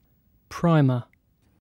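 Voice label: "primer", British English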